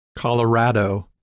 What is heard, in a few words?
"Colorado", English pronunciation